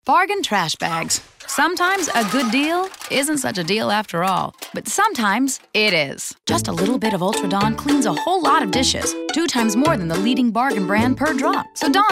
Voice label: Comm VO demo